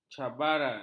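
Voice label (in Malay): "cabaran", Malay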